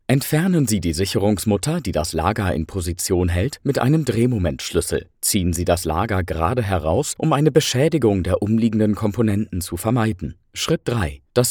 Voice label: DEUTSCH E Learning, NATIVE DE - klar, deutlich, seriös, Tutorial; Montageanleitung; X500; German

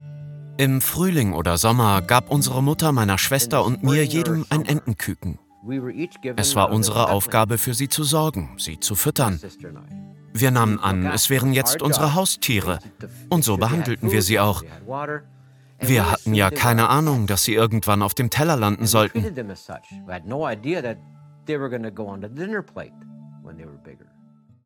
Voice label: Voice Over Doku; LOFT VOICES

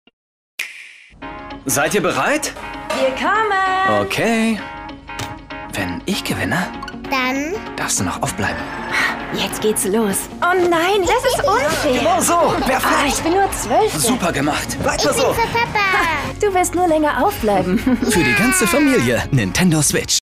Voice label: Rolle Mutter; Nintendo Switch

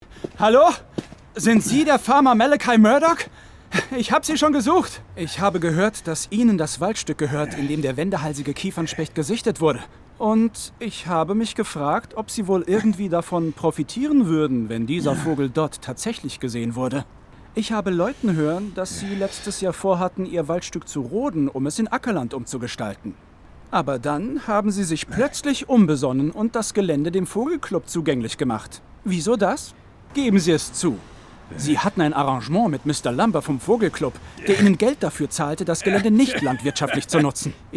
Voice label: hoerspiel, Audio Drama (Hörspiel), German; Margaret Rutherford - "Archie Smythe"; LOFT VOICES

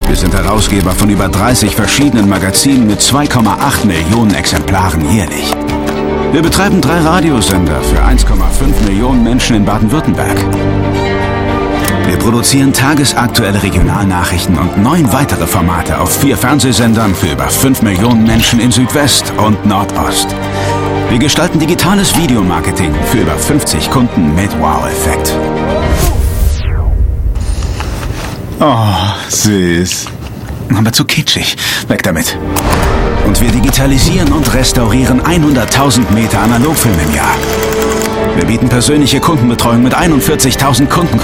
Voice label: Imagefilm; Die SV Gruppe

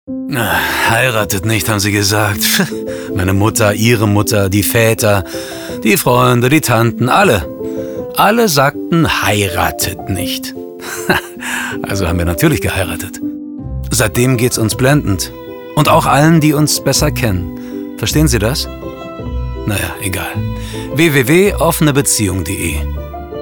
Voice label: Full Mix Heiraten, Scene, German

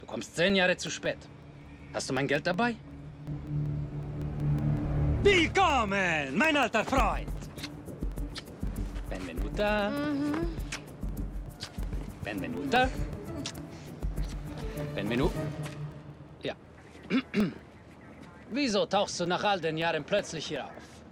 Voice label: Spion, Lip-Sync (Synchron), German, Akzent: Italian; Spion 1; Dialekt: Bayrisch; Germany

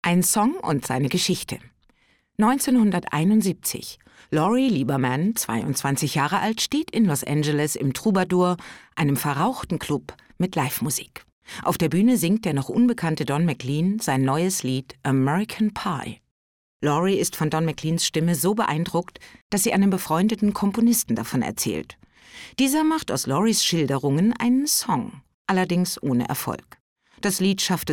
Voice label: erzaehlung

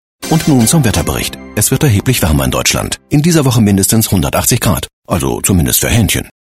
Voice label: TVC Nachrichtensprecher

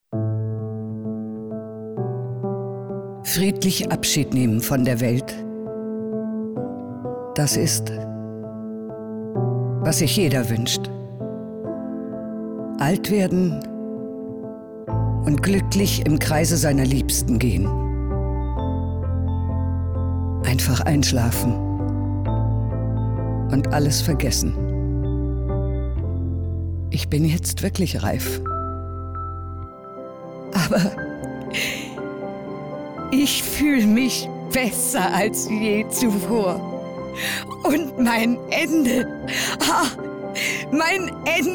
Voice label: funkspot werbung

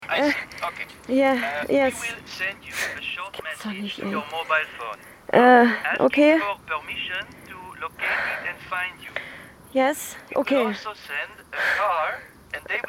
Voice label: Englisch mit franz Akzent Hörspiel; WDR; LOFT VOICES

